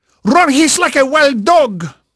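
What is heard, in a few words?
Spanish male, voice; Pirates Of The Caribbean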